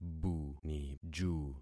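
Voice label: "buniju", concatenated syllables; Affixing experiment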